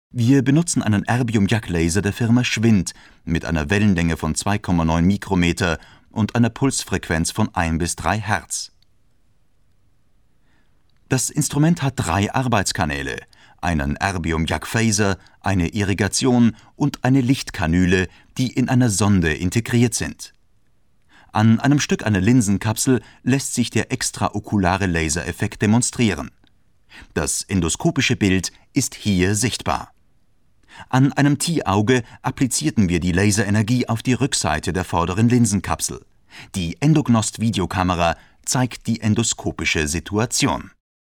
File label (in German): industrie, stimme sprecher